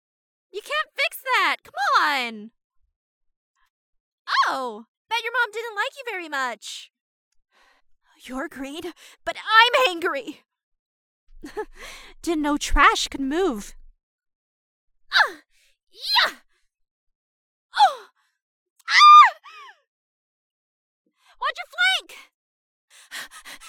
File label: Recruit Female